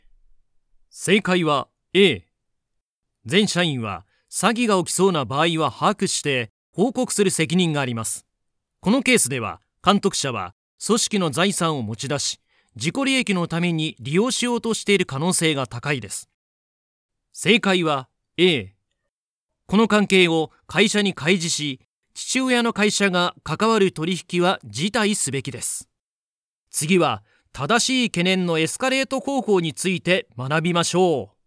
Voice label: E-learning

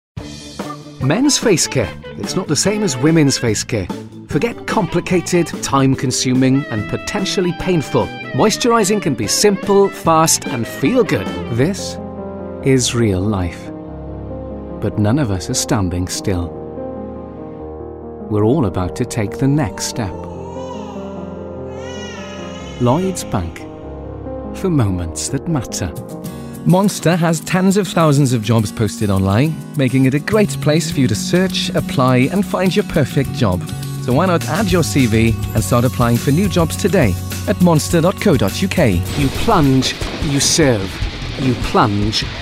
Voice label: Commercial

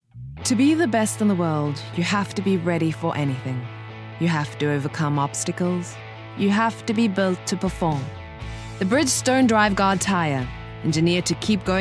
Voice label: South African; Bridgestone Firestone

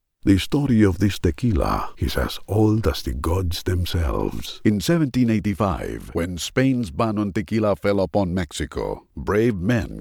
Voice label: Hispanic accented Ingles

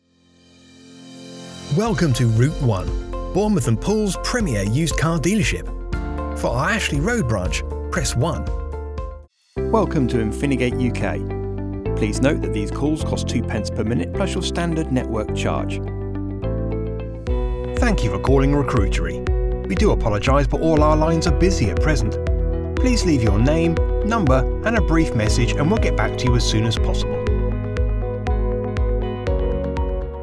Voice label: IVR

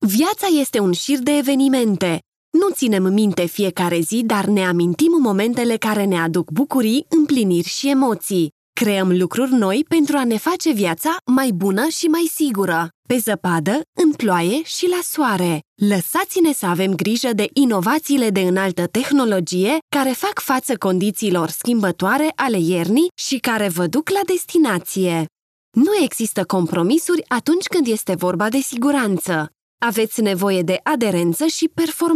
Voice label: RO F teleshopping

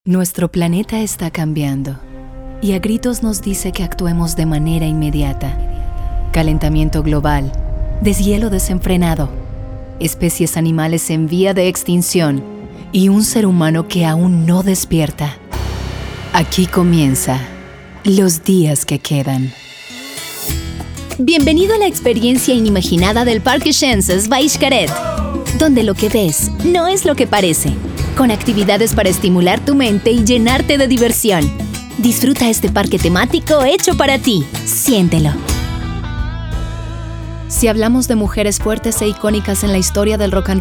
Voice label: Narration LATAM

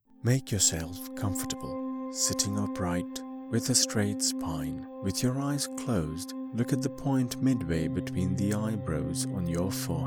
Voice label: English Meditation

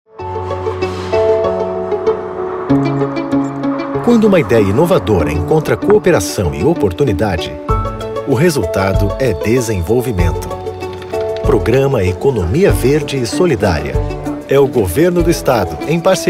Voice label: CONVERSATIONAL PORTUGUESE VOICE

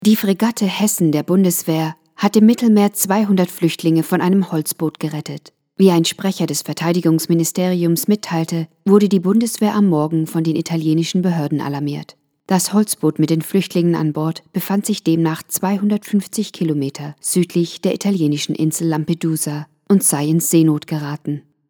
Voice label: German News Story

